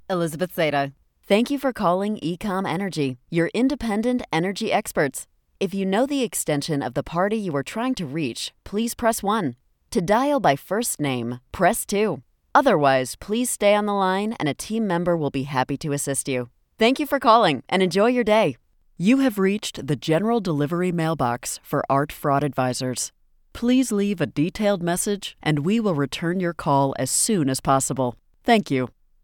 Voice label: IVR Telephone